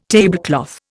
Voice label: "tablecloth", Indian